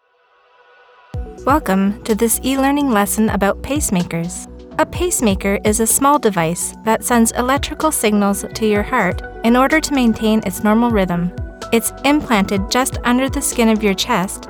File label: ELEARNING NARRATION DEMO